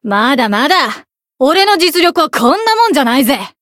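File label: Voice growth, Japanese; kid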